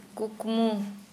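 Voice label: Santali, female, dream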